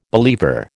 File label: "believer", American